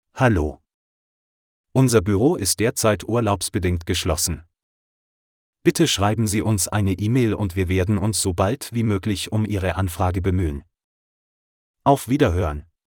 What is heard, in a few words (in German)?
Urlaub generisch Hang Up MALE